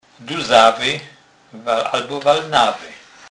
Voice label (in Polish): "duzawy", kurpiow